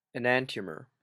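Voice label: "enantiomer", Canadian English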